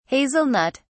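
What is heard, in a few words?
"hazelnut (s)", British English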